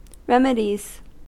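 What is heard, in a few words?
"remedies", American English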